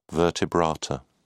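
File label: "vertebrata", British English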